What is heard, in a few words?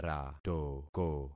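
"ratoko", concatenated syllables; Affixing experiment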